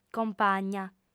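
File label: "compagna", female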